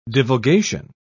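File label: "divulgation", British English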